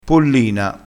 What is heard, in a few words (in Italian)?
"pollina", crespinese